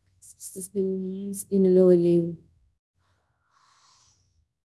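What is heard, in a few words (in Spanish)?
Voice Acting es F, Spanish, female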